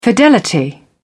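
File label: "fidelity", English, British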